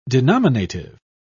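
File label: "denominative", British English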